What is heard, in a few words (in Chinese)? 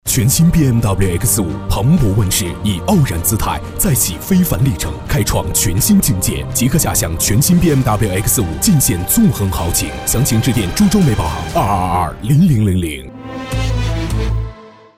男 大音 广告 沉着稳重; BMW; 销售类tvc